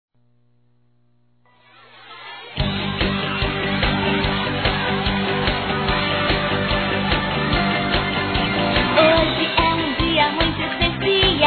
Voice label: Aniversário Filha Masculino; Telemensagens 2017; Aniversários; Aniversário Infantil

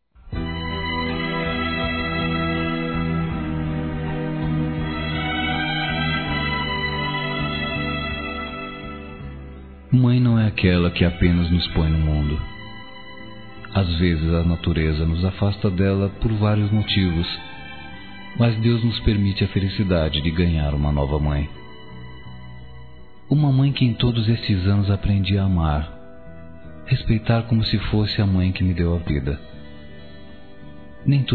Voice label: MÃE ADOTIVA, Masc; Dia das Mães; Telemensagens 2017

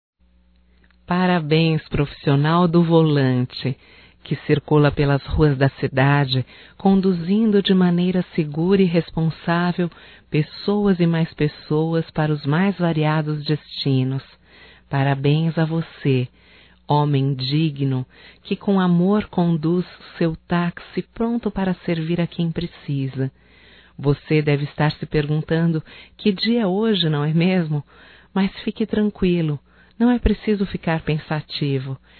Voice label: HOMENAGEM AO MOTORISTA DE TAXI (F), Só Voz; Telemensagens; HOMENAGENS

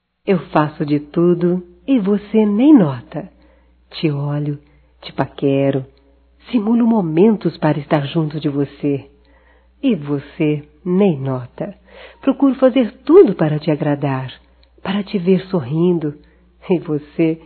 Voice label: PAQUERA(F), Românticas fem

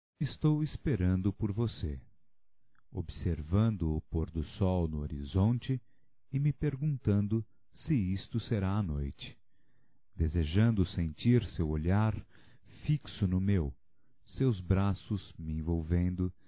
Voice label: Amor Eterno Masculino, Telemensagens; Telemensagens 2017

